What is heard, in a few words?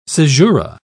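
"cesura", British English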